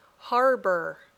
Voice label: "harbor", English